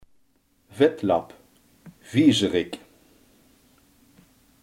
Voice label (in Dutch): "viezerik", limburgse